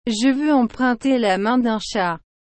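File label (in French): "Je veux emprunter la main d'un chat", French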